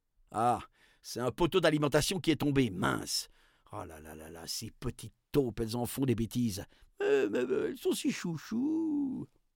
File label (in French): narrateur; poteau elec casse; La-Fabrik